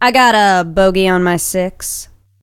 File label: low; awesomenauts